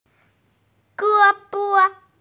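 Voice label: "ge1bo5" Mandarin Chinese, female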